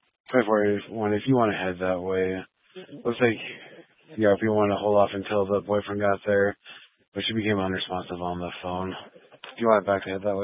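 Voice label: Sheriff Dispatch, scanner audio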